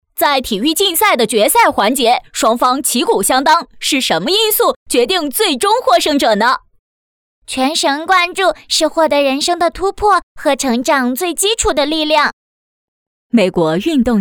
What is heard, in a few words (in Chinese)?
角色男童女童成人